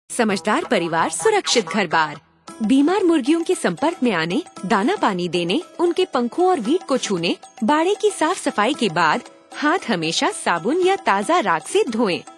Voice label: Radio Handwash